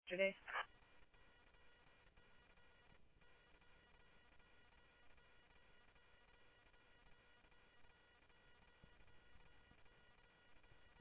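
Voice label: Simulcast police department, scanner audio trunk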